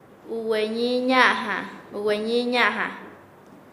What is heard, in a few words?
Nasa Yuwe; usado; paez